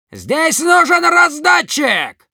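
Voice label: need dispenser, Russian; Demoman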